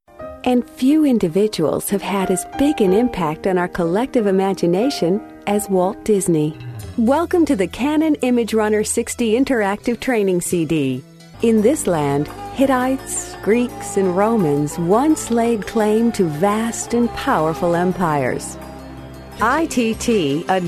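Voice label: female English US narration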